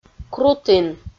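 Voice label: "крутін", Komi, female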